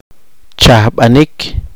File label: poqomchi; tender extender